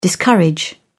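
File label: "discourage", English, British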